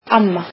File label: icelandic grandmother